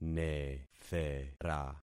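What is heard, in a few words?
"nethera", concatenated syllables; Affixing experiment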